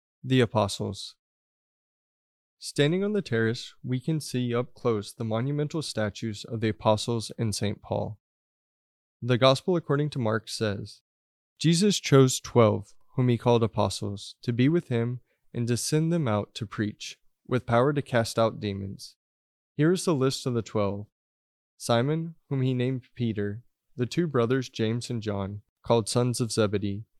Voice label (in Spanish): Audio Ingles; TIBIDABO; english